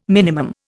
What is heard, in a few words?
"minimum", Indian